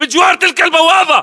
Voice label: landmark near gate, localized english battlechatter